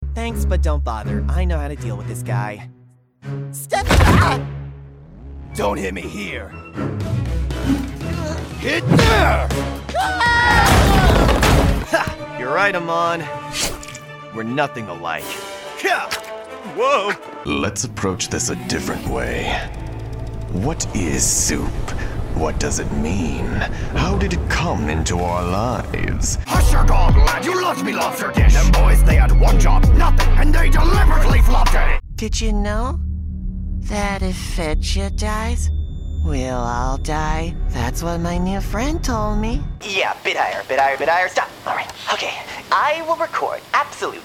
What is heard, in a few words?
Animation